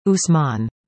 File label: "Usman", english; indonesian boy names